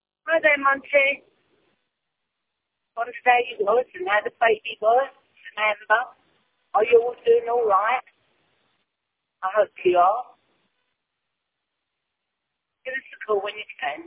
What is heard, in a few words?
voicemail